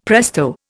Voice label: "presto", Indian; Dictionary